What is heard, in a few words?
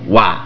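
"One", English; Klingon BG App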